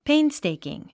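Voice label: "painstaking", English word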